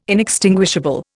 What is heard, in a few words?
"inextinguishable", Indian